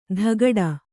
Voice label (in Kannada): "ಧಗಡ", Kannada